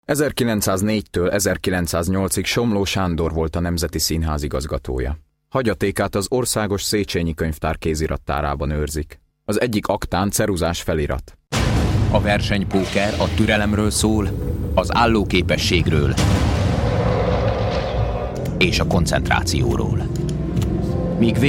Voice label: voiceover hungarian language showreel hungarian language